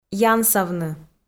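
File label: "янсавны", Komi-Zyrian, female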